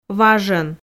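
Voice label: "важӧн", Komi, female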